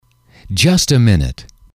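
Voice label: "just a minute", English, male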